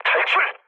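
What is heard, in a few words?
Korean bailout mood low, speech; War Thunder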